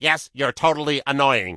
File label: Gnome Male Standard NPC Pissed